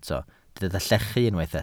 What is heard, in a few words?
"llechu", Welsh